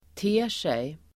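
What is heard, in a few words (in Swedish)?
"ter sig", Swedish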